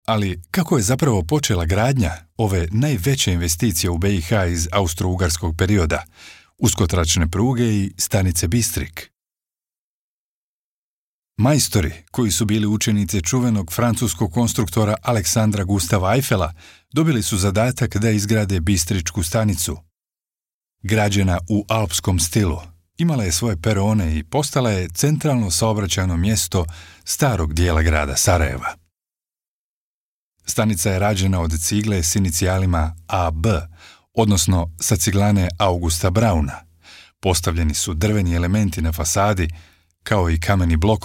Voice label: Bosnian voice over documentary